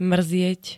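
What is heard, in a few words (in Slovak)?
"mrzieť", Slovak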